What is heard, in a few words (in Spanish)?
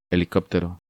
"Helicóptero", Spanish